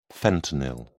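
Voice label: "fentanyl", English, British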